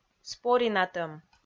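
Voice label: "споринатӧм", Komi, female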